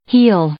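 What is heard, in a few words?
"heel", English, female; noun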